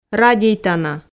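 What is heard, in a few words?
"радейтана", Komi, female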